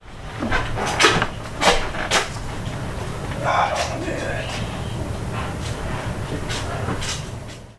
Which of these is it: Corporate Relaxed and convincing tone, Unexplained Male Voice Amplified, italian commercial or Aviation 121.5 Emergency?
Unexplained Male Voice Amplified